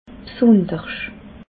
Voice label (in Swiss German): "bsundersch", alsace